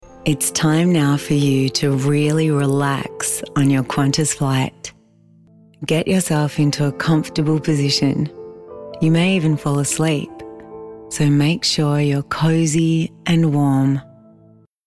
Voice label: MEDITATION; QANTAS

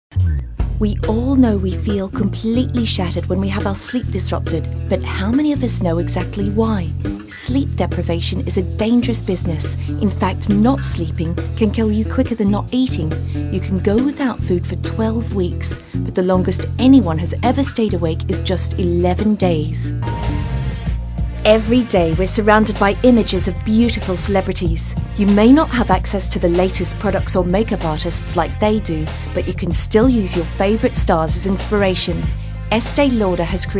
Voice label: accents

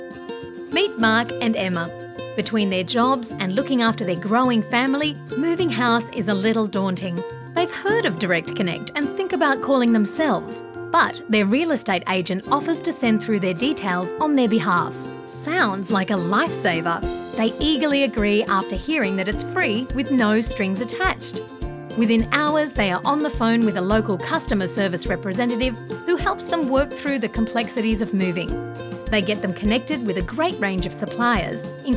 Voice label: corporate; EM Voices

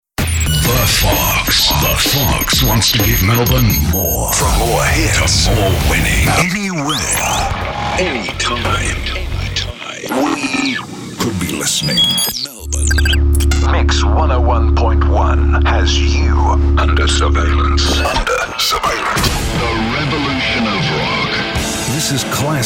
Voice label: promos

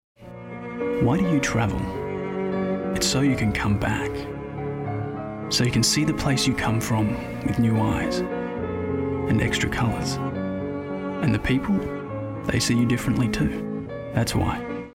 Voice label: POETIC AND RELATABLE; TRAVEL WA

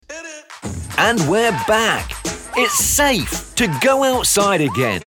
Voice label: English character

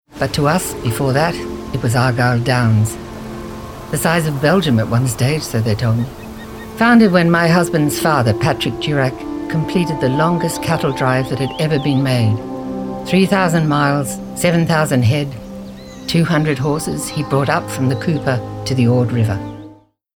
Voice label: Audiobook Extract

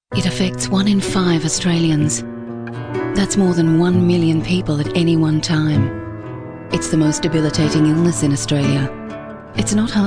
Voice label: soft

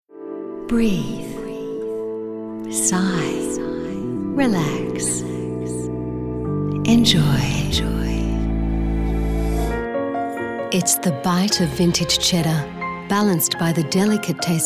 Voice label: soft; EM Voices

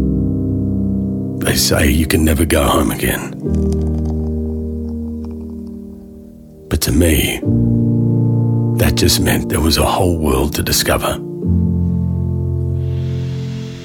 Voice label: Narration

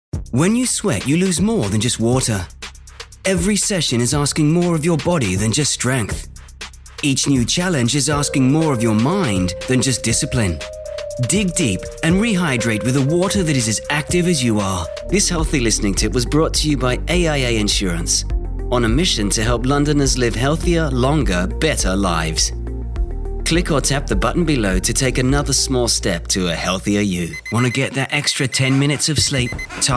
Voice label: UK accent

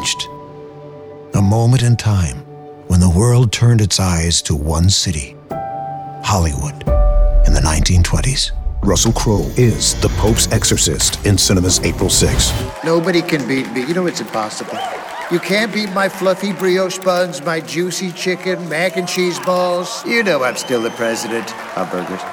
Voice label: Character

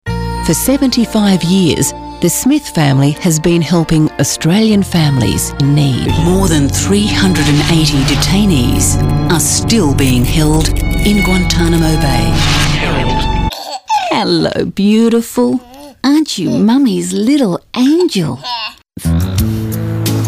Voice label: soft; EM Voices

